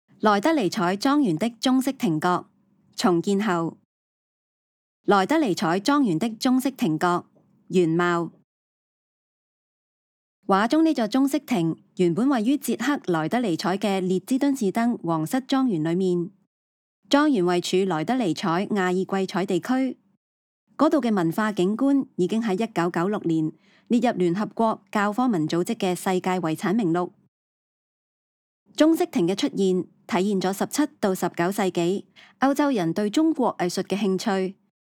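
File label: Cantonese, audio guide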